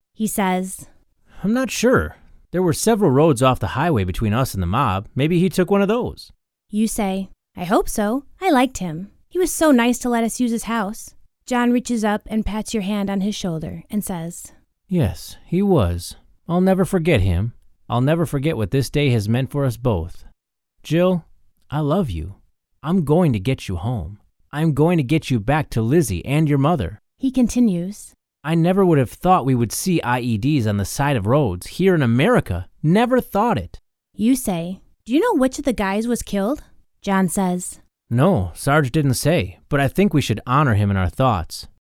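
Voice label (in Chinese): 外籍男声 对话 美式英语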